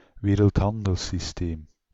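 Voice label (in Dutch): "wereldhandelssysteem", Dutch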